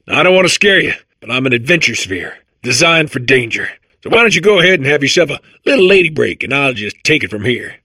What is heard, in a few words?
babble; portal2